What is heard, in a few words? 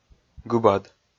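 "гыбад", Komi, male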